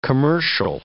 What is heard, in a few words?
"commercial"